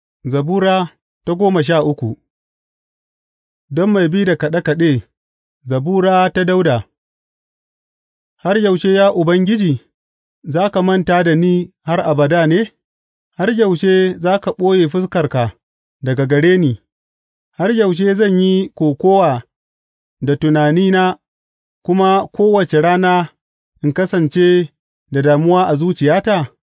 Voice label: hausa bible drama, psalms; hausa bsn nt drama

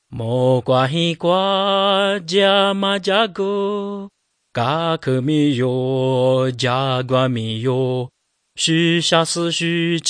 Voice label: words of life lisu southern

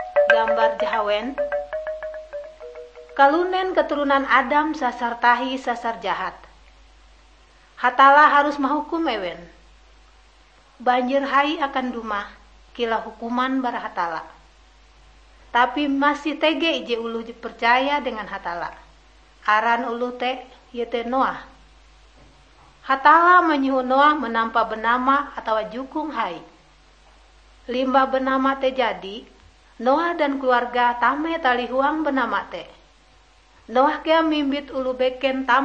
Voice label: kabar bahalap good news ngaju, drama

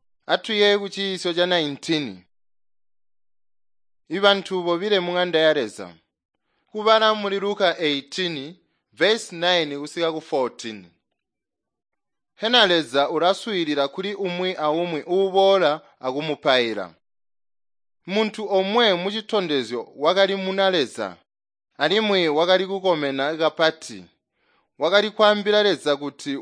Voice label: tonga plateau, drama; chitonga bsz nt drama

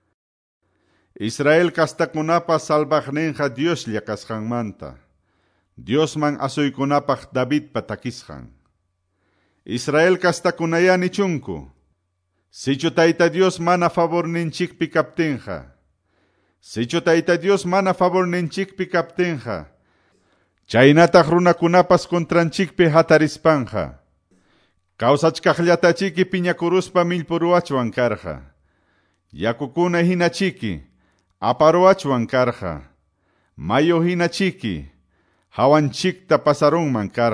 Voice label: salmos, quechua ayacucho

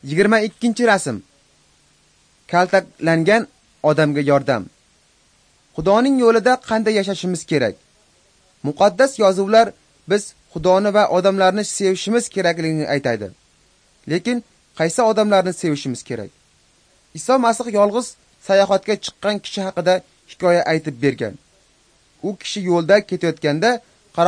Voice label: uzbek northern

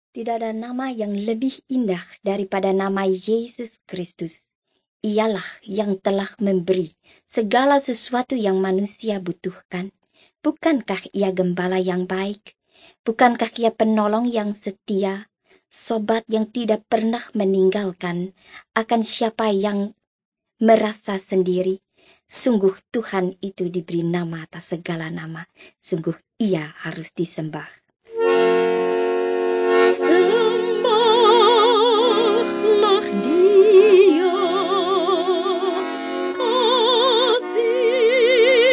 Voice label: bahasa indonesia; words of life 1

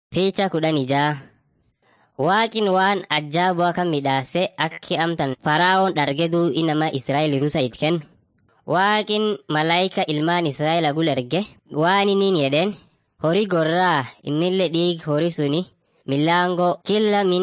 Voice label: afan munyoyaya; mighty men of god

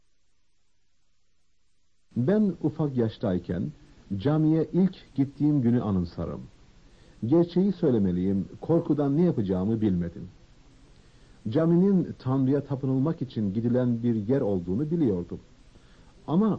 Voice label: prayer turkish

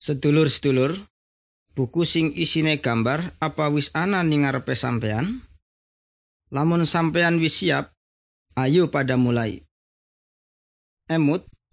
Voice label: good news jawa indramayu, javanese bible

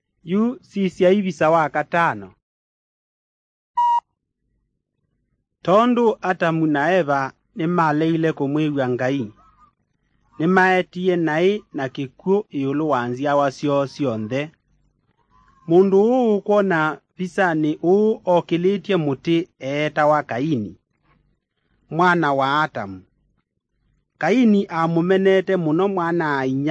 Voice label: kikamba drama; good news; bible

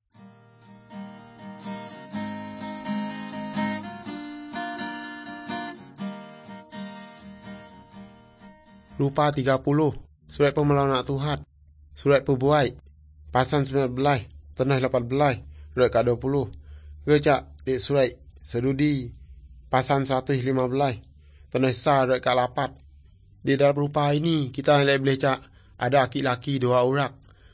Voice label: good news, urak lawoi

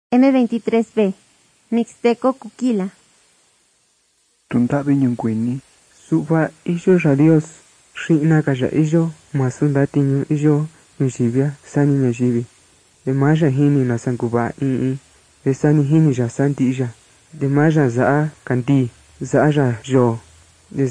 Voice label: mixtec diagnostic spanish mexico

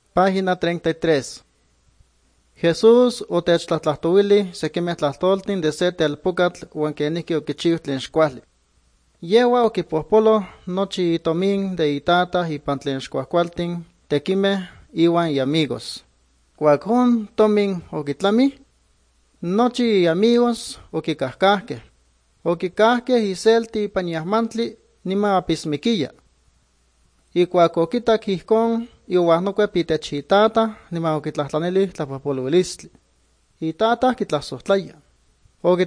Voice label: good news, nahuatl guerrero chilapa

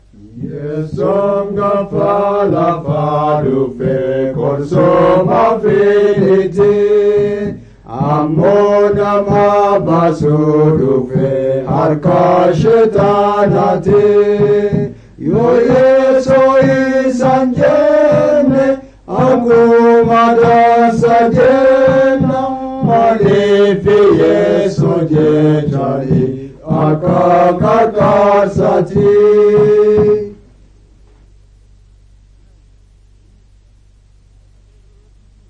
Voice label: words of life borana gabbra

